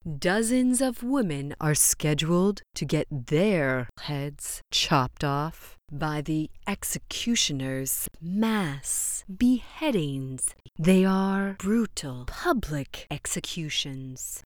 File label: Brutal public executions narrative